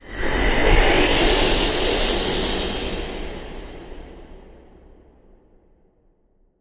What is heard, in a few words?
Sea Nymph Whispers